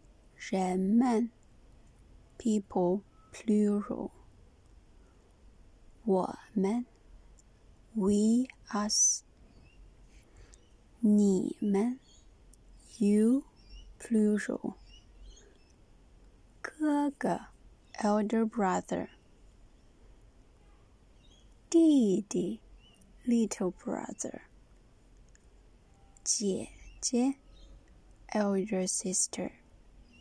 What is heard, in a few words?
the neutral tone, Mandarin